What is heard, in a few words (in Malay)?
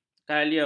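"kalium", Malay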